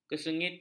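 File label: "kesengitan", Malay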